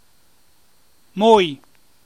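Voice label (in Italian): "Mój" Emilian dialect word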